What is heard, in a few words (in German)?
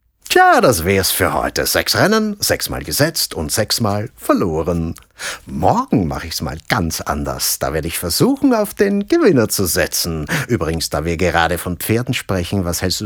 Synchron; Die Zwei